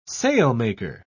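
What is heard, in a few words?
"sailmaker", British English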